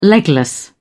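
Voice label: "legless", British English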